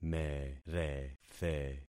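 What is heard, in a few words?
"merethe", concatenated syllables; Affixing experiment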